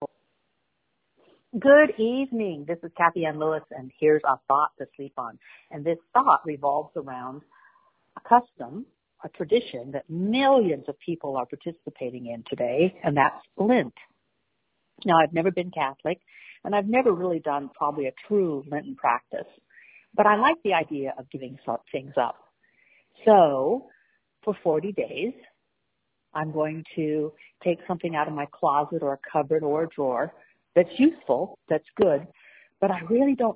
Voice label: voicemail